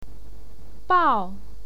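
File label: "biao", Mandarin Chinese, fourth tone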